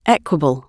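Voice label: "equable", English; oxford api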